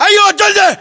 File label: rank private, battle chatter, english